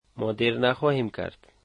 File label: dari we will not come late